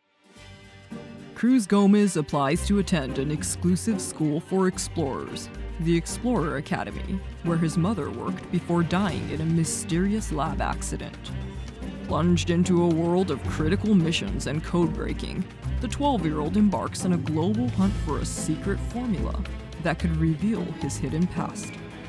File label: foreigner; ホラー風の